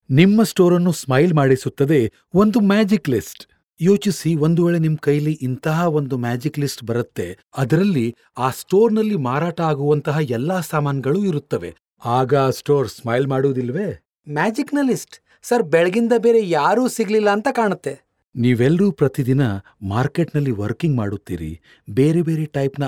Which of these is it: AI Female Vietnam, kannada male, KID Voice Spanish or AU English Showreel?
kannada male